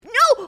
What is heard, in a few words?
panicked no